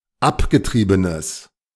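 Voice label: "abgetriebenes", German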